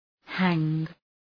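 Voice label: "hang", English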